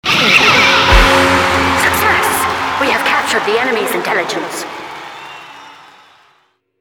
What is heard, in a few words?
excited; intel team captured; tf2